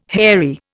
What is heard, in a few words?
"hairy", Indian